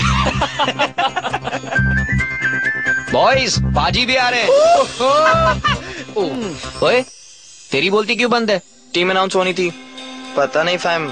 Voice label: Ad